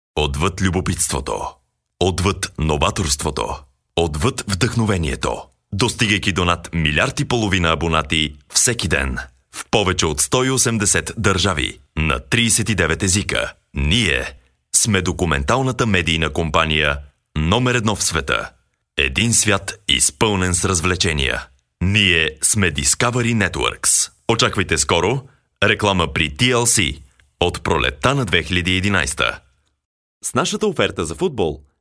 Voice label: Bulgarian Male

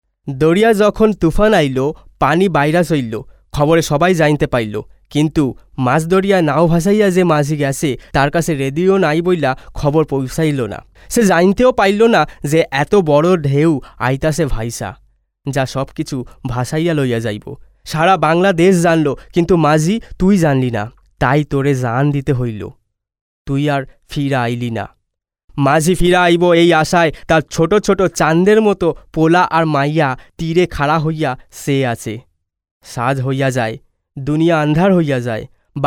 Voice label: Bangladeshi Sylheti Male

